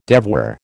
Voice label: "devoir", American